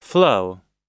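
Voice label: "flow", English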